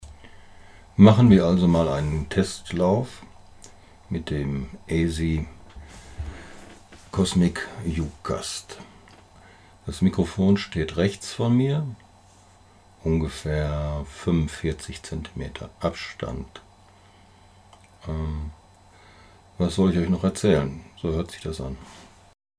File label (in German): Raumaufnahme 45cm; ESI cosMik uCast